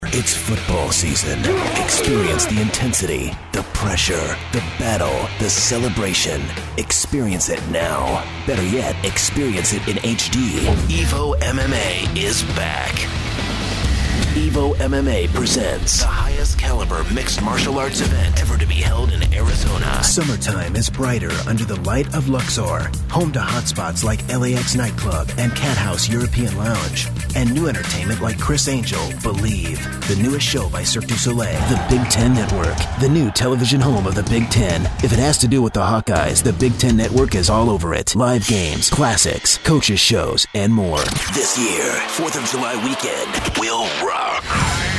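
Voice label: impact, radio commercial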